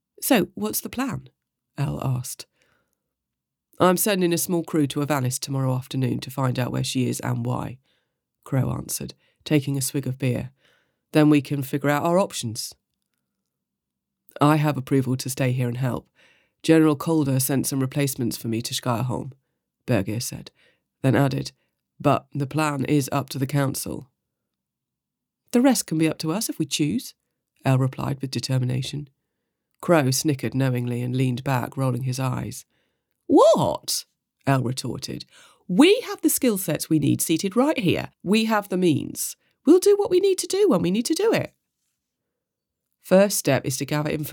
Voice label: Sample multi voice scene